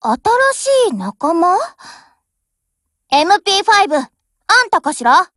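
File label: BUILD OVER Japanese; GF Alarm; UMP40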